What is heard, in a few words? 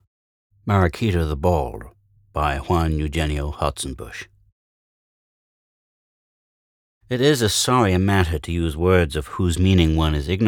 free romance audiobook; maraquita the bald spanish love stories